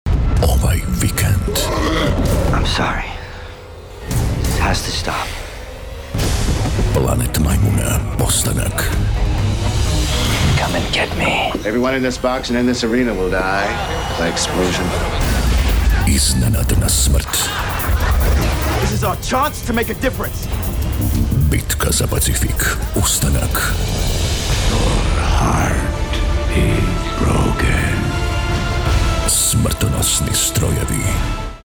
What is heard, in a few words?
croatian movie trailers